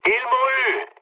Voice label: aircraft destroyed mood low, speech fr

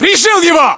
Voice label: inform killfirm infantry, battlechatter ru

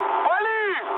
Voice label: attack position, mood med, speech ru; War Thunder

